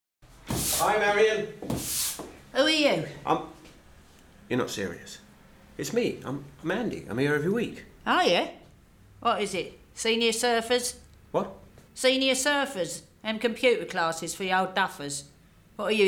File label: Radio4 Drama